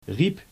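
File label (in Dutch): "riep" Dutch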